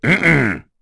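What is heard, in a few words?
Vox Deny, voices heroes English; Bernheim; kings raid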